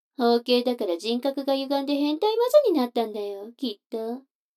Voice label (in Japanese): 女の子 台詞, ASMR